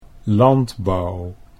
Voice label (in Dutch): "landbouw", Dutch